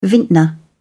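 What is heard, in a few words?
"vintner", English, British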